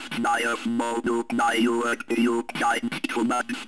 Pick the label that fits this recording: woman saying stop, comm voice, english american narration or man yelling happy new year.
comm voice